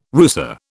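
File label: "rusa", British English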